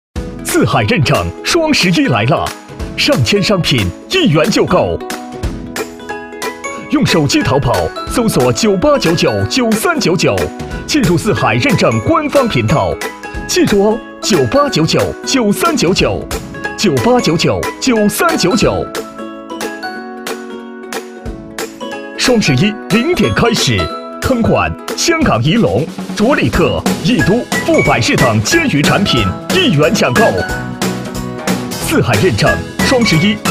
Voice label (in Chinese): 男 广告 钓鱼频道; 四海钓鱼频道